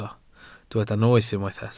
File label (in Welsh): "noeth" Welsh